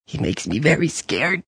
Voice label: He Makes Me Scared, Male; Talking Sound Effects